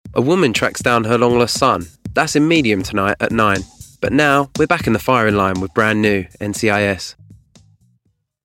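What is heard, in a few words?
estuary voice continuity radio, Estuary English Male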